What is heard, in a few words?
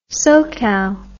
"socal", english